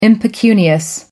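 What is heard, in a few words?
"impecunious", British English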